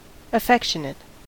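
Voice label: "affectionate", US English; pronunciations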